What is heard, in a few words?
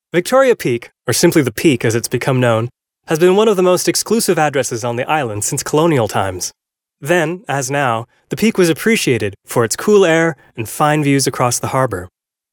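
American English male corporate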